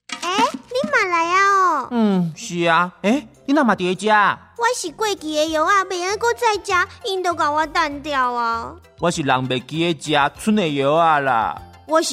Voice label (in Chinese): 家庭用藥總檢查 台語版